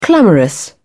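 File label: "clamorous", British English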